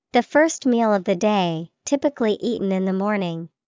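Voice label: breakfast, English vocabulary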